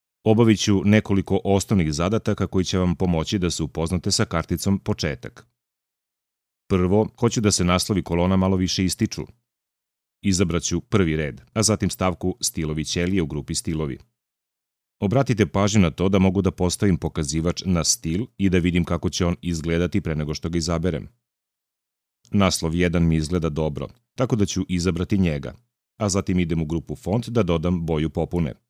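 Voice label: Serbian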